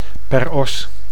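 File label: "per os", Dutch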